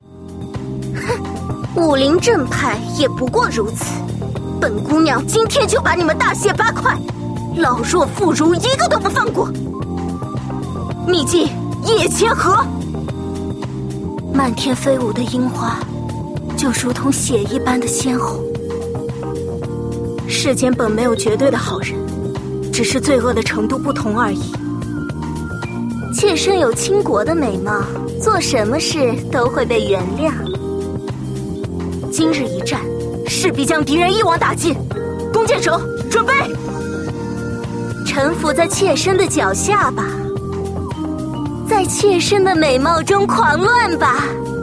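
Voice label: female mature woman queen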